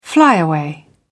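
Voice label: "flyaway", British English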